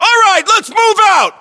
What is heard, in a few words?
order move generic, US